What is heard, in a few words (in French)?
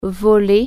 "rob", French